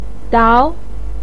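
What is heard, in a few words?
"diao" Mandarin Chinese, second tone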